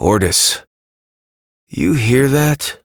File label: Adult Op, en; New War; Ascend Tower